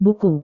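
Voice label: "buku", Indonesian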